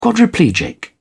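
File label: "quadriplegic", English, British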